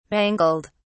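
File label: "mangled", British English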